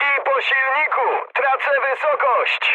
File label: damaged engine mood high, speech pol; War Thunder